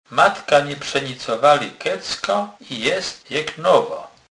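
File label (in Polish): "przenicowac", Kurpie dialect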